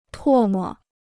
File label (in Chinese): "唾沫", Chinese